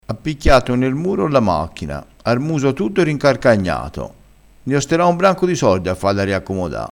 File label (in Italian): "rincarcagnato", crespinese